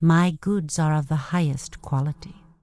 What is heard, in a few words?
Night Elf Female Priestess Vendor